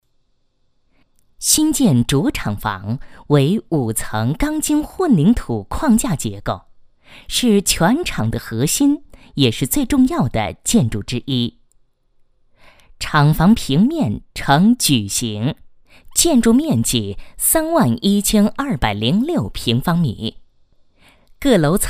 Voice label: 工程解说 厂房建筑, 国语女声配音

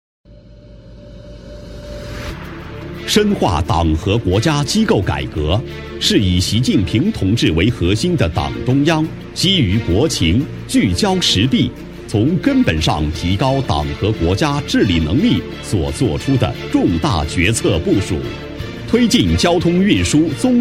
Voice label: 男 专题汇报 交通综合行政执报

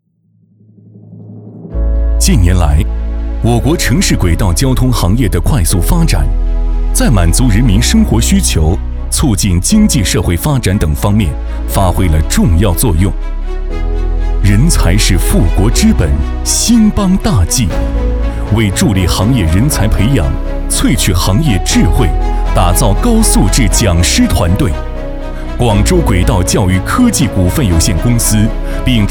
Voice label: 男 科技感; 轨道

